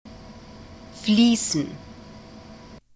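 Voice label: "fliessen", German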